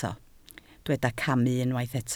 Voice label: "camu", Welsh

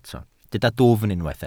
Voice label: "dwfn", Welsh

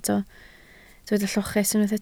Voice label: "lloches", Welsh

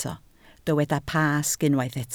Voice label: "Pasg", Welsh

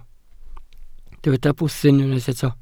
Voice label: "bwthyn", Welsh

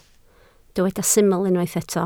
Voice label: "syml", Welsh

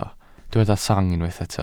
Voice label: "sang", Welsh

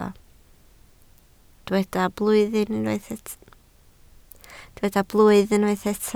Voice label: "blwydd", Welsh

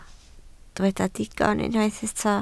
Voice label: "digon", Welsh

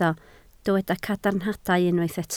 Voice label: "cadarnhadau", Welsh

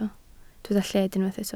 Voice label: "lled", Welsh

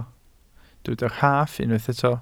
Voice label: "rhaff", Welsh

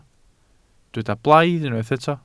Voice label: "blaidd", Welsh

